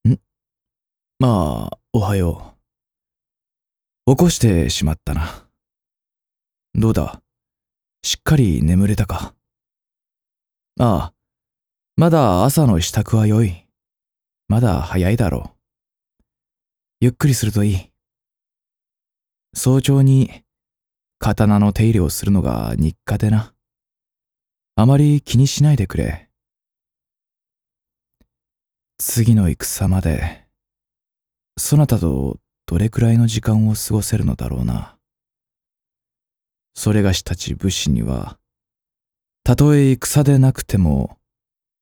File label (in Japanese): 「おはよう」和風